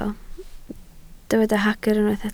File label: "hagr", Welsh